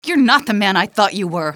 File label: not the man i thought, female; Half-Life 2